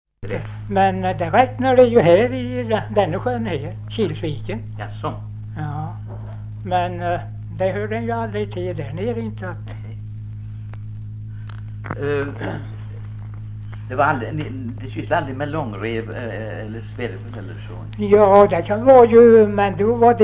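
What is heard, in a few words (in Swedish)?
Visnums-Kil Värmland, dialekter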